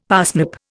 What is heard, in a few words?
"parsnip", Indian; Dictionary